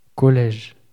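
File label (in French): "college" French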